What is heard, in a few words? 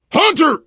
warn hunter, biker, survivor voice; Left 4 Dead 2